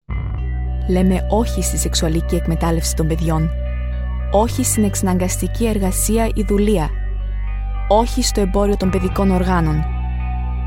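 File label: Greek, Commercial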